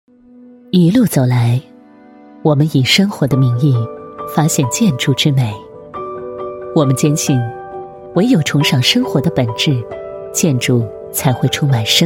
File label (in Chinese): 女4号 房地产, 国语女声配音; 优雅; 浩宇房产 用心筑家